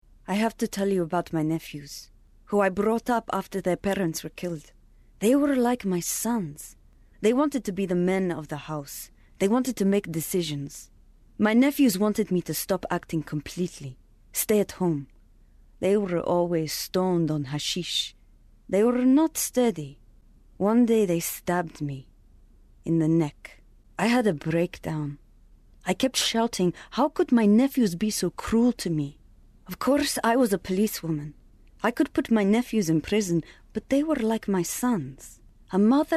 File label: middle eastern accent